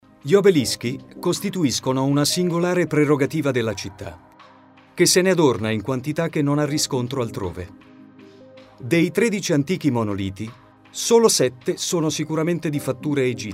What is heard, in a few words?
locutor italiano, Italian voiceover